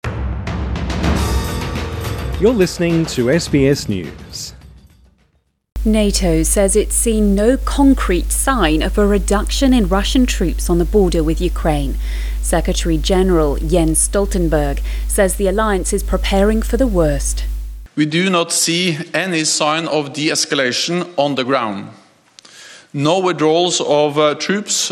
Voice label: english; SBS News; "Russia maintains a massive invasion force ready to attack" Ukraine: NATO